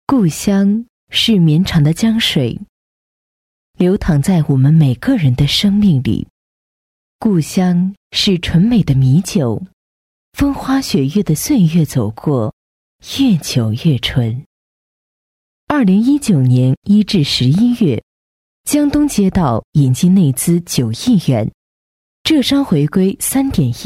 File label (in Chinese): 旁白讲述乡愁